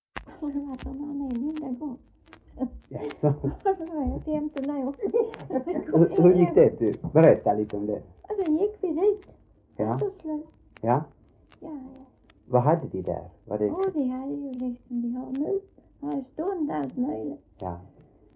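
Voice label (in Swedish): Äspö Skåne, Svenska sagor dialekter; Folkminnen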